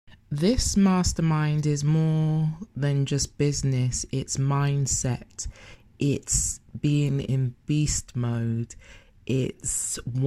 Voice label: voicenote